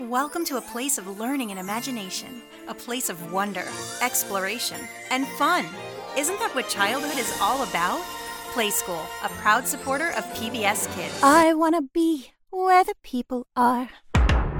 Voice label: english american promos; professional American voice actor, singer, and master whistle